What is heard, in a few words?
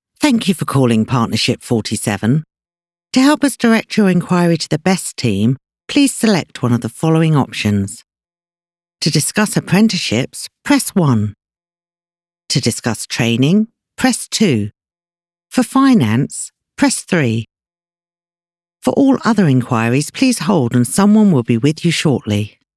ivr